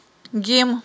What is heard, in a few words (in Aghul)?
"гим", Aghul